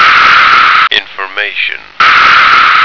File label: "information", American